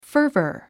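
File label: "fervor", English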